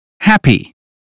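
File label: "happy", British phonetics; 英语音标发音（剑桥版）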